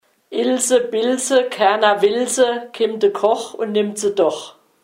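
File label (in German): Kinderreim, O-Ton Hunsrück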